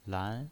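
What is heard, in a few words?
"lan", pinyin, Mandarin Chinese, second tone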